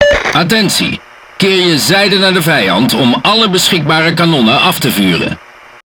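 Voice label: Speech Tutorial, Dutch; World Of Warships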